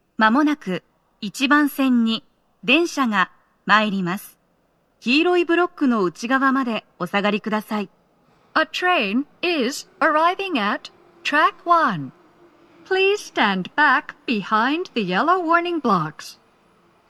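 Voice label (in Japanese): 接近放送, 簡易放送, 女声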